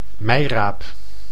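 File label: "meiraap", Dutch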